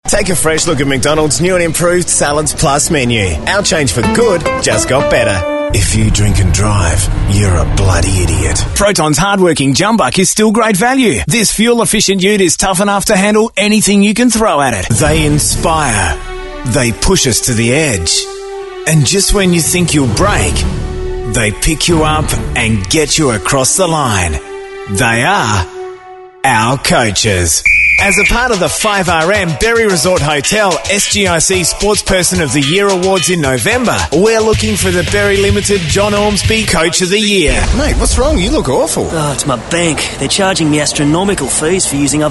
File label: male talent retail